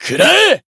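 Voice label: attack, Japanese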